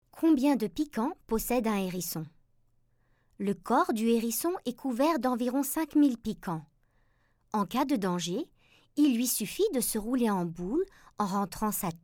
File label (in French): Informatif; Astrapi